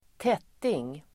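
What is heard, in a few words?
"tätting", Swedish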